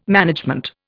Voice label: "management", Indian